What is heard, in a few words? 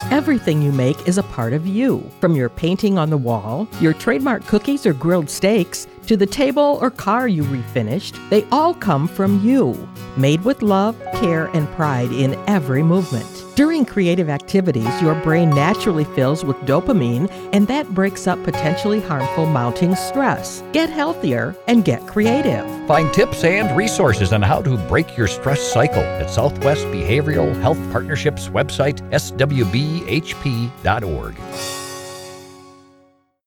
Female; Creative Expression